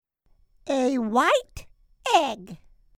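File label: "white egg", English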